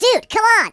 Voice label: "Come on then", Surfer voice; hedgewars